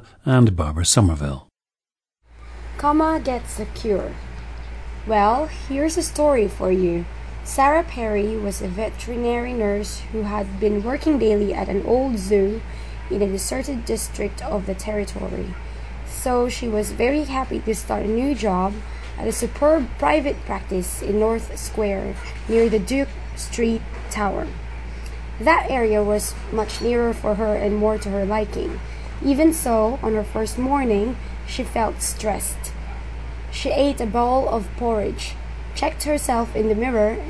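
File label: philippines, dialects of English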